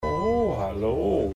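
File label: "ohhh Hallo", German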